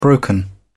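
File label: "broken", British English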